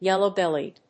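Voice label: "yellow-bellied", English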